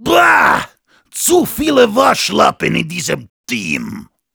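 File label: jeers, German; Heavy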